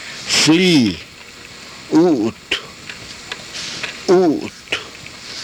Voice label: "uut", karuk